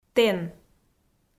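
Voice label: "тэн", Komi, female; audio dict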